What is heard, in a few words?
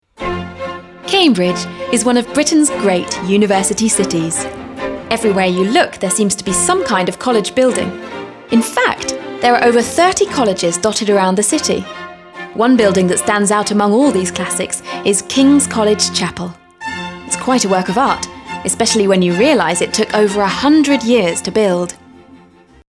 audioguide